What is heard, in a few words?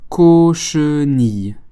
"Cochenille", French